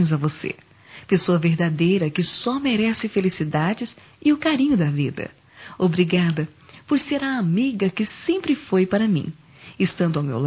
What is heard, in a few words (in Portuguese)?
Sogra-f; Dia das Maes; Telemensagens 2017